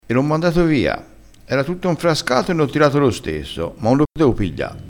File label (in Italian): "infrascato", crespinese dialettale